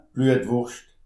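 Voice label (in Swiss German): "bluetwurscht", Alsatian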